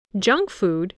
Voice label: "junk food", English